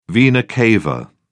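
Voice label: "vena cava", British English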